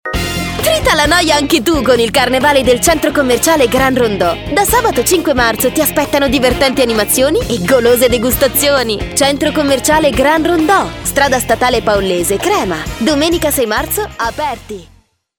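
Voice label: Italian, female, commercial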